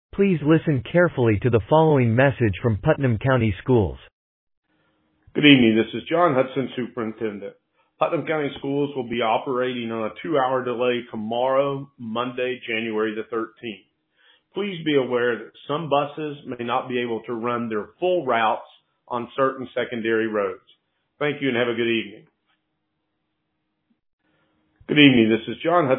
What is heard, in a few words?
Voicemail